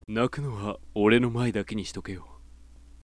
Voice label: sample sweet low, voice